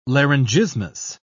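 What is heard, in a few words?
"laryngismus", English, British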